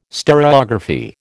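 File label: "stereography", American English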